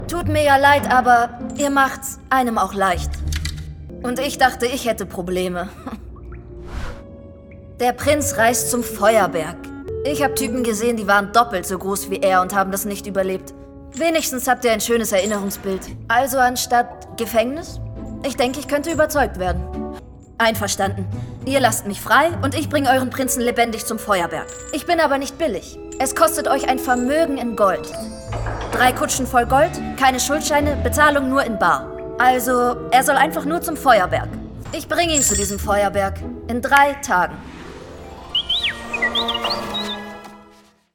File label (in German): Animation Synchron